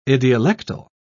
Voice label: idiolectal, uk